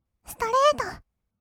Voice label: "ストレート", kawaii voice; ASMR; 色々なワンショット

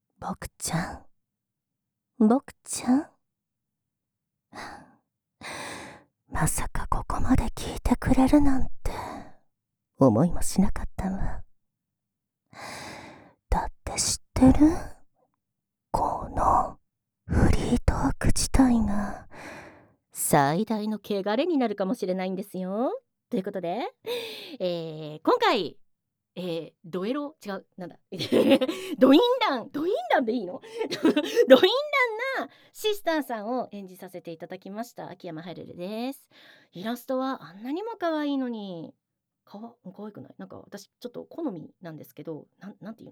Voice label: フリートーク, ASMR; 効果音なし; 視聴後推奨

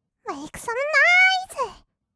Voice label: メイクサムノイズ, kawaii voice; 音ゲー

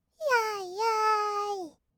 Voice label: "やいやーい", kawaii voice; 1200 kawaii voice!!; 色々なワンショット

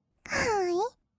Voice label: 「かわいい」, kawaii voice; 1200 kawaii voice!!; 色々なワンショット

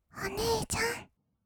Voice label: お姉ちゃん, kawaii voice; ASMR; 二人称

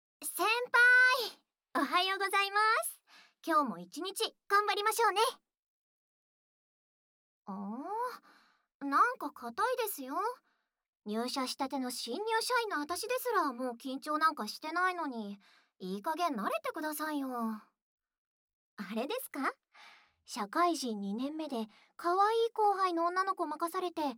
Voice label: ボイスオンリー, ASMR; 後輩ちゃんに耳が弱いのがばれる

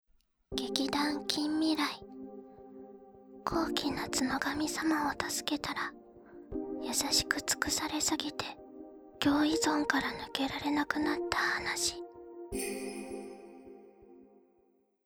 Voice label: タイトルコール; 高貴なツノガミ様を助けたら優しく尽くされすぎて共依存から抜けられなくなった話

